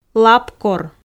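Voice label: "лапкор", Komi, female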